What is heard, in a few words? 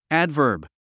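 "adverb", English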